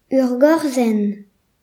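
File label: "stem", Breton; gcompris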